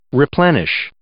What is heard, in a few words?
"replenish", English